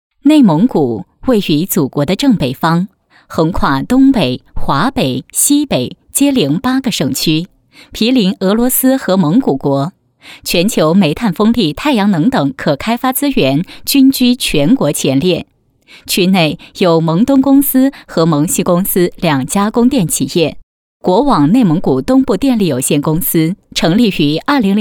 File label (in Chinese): 女-专题; 内蒙古